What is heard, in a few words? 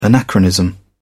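"anachronism", British English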